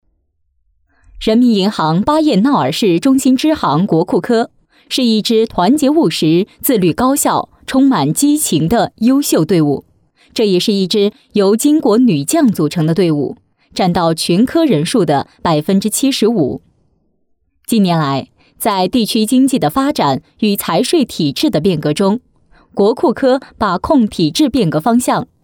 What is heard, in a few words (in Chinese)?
女 专题 成熟磁性; 国库科